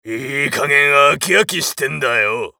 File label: macho win